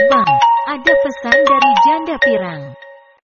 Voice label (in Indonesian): nada dering; bang ada pesan dari janda pirang